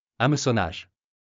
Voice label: "hameçonnage", French